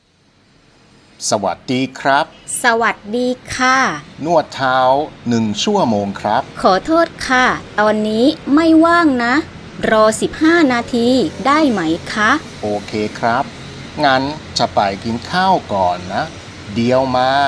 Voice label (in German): dialog; thai massage